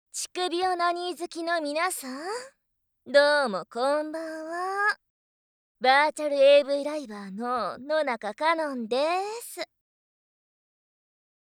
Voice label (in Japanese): オープニングトーク, ASMR